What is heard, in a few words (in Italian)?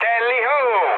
enemy detected, mood med, speech it; War Thunder